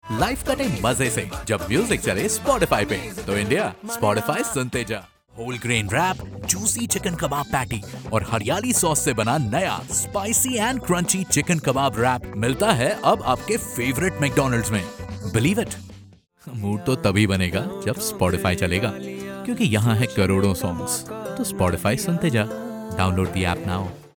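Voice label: Hindi, female, radio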